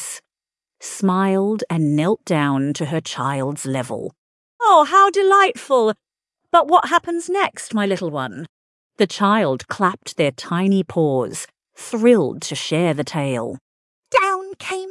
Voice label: Itsy Bitsy Spider fem aus story